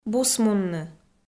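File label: "бусмунны", Komi, female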